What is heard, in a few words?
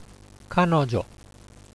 "kanojo", Japanese; pronoun